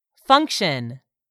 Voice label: "function", English; 3000 words

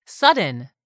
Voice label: "sudden", English; 3000 words

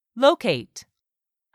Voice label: "locate", English; 3000 words

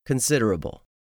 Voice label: "considerable", English; 3000 words

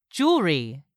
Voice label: "jewellery", English word; 3000 words

